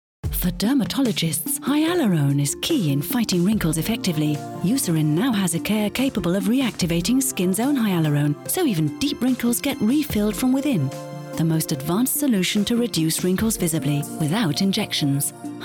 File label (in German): uk englisch